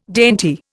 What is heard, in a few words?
"dainty", Indian